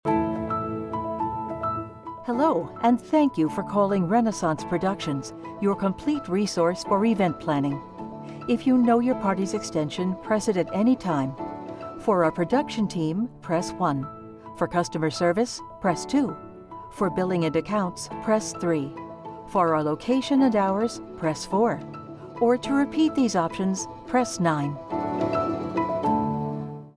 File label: IVR